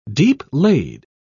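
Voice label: "deep-laid", British English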